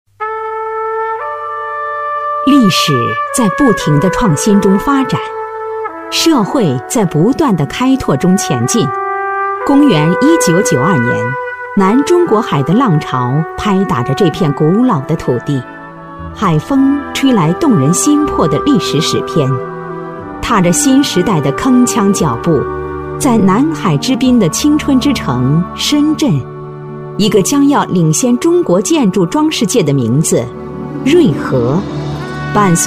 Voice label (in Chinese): 女 专题配音 大气厚重, 大气浑厚; 深圳瑞和